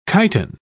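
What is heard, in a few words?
"chiton", English, British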